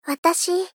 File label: Gentle Watashi